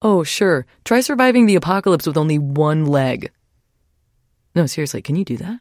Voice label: trader monolog; 商人杰恩（七日杀）